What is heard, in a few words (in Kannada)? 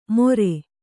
"ಮೊರೆ", Kannada